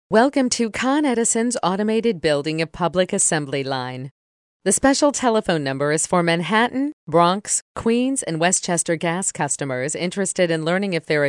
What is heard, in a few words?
ivr